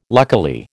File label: "luckily", American